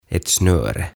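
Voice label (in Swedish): "ett snöre", Swedish; Naru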